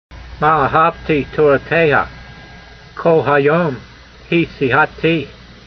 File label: voice, Psalm 119:97, hebrew language; Transliteration: ma-a'havtee torateyha, kol-hayom hee seehatee